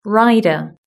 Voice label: "rider", British English

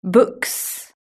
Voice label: "books", british english

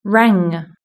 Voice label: "rang", British English

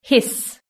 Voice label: "hiss", british english

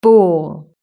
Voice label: "ball", british english

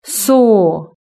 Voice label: "saw", british english

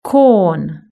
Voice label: "corn", british english

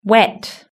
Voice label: "wet", British English

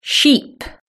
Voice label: "sheep", British English

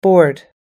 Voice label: "board", us english

